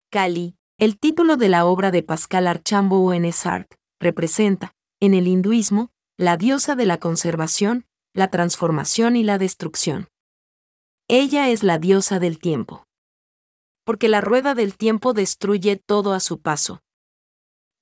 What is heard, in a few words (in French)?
espagnol